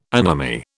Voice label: "anomy", British